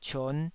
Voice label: "cion5", Taiwanese